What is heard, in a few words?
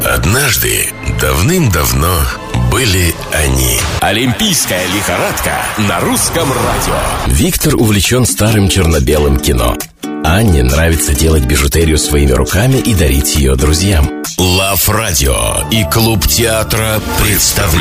rus